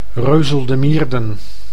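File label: "Reusel-De Mierden", Dutch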